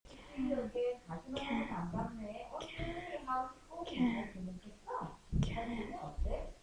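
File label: "can", spoken word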